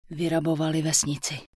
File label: Human Female Goodbye; wowpreklad; czwow; HumanVocalFemale